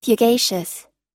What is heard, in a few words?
"fugacious", US